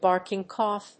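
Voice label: "barking cough", English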